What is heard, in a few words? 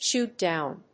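"shoot down", English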